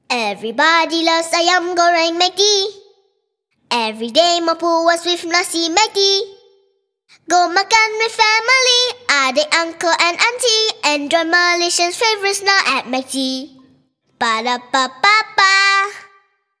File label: English